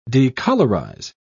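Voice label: "decolourize", British English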